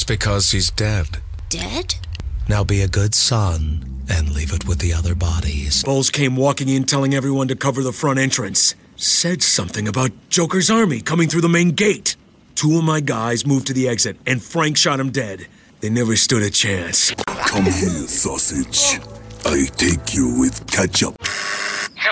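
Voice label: animation male, Voice Over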